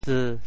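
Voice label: "tseu1", Teochew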